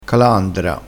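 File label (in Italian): "calandra", dialettale crespinese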